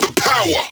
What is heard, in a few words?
Vocal Phrase Male "Power"